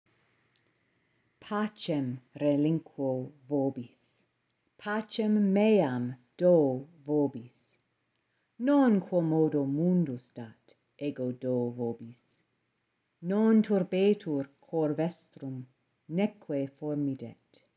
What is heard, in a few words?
Ecclesiastical Pronunciation; John 14:27